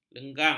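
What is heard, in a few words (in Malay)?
"lengang", Malay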